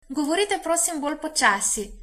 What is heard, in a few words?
slowly, slovenian